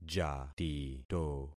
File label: "jatito", concat syllables; Affixing experiment